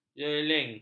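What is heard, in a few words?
"jeling", Malay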